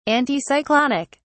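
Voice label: "anticyclonic", British English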